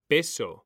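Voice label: "peso", Spanish, male; mekanta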